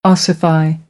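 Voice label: "ossify", American English; AmericanBritish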